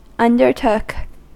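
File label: "undertook", US English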